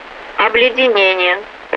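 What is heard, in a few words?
Russian speech, Mi-8MT RI65; Messages icing; DCS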